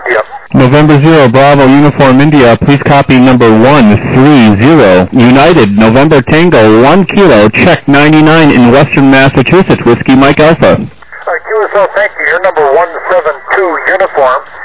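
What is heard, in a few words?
upper sideband 14MHz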